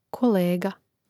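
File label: "kolega", Croatian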